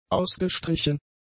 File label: "ausgestrichen", German; adjectives